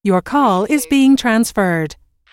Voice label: US female phone prompt Your call is being transferred; lynne publishing